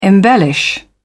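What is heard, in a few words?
"embellish", British English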